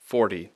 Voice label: "forty", English